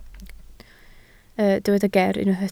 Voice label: "ger", Welsh

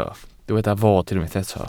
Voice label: "fod", Welsh

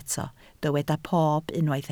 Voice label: "pob", Welsh

